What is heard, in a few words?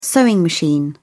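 "sewing machine", British English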